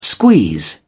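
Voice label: "squeeze", British English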